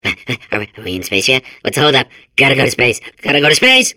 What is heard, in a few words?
babble; Portal 2